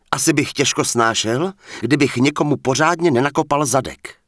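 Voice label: Human Male Standard NPC Pissed, Czech; wowpreklad; czwow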